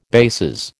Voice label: "bases", American